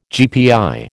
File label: "GPI", American